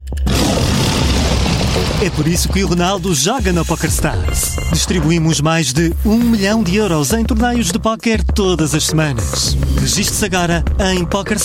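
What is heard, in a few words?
commercial European Portuguese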